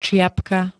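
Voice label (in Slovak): "ciapka", Slovak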